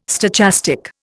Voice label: "stochastic", Indian